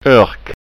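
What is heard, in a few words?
"ork", Scanian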